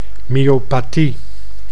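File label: "myopathie", Dutch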